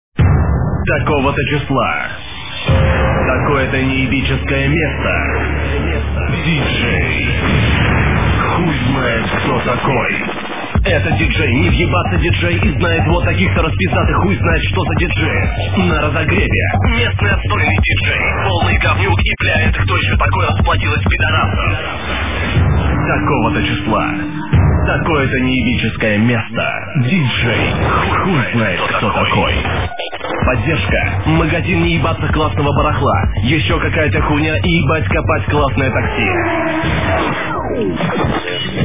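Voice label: Reklama dj, advert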